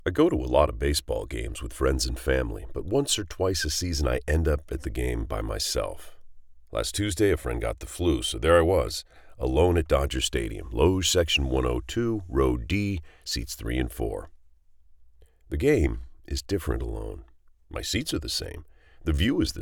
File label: Public Address Announcer reciting poem; Dodger Stadium; zen baseball